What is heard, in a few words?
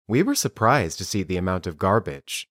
Dictation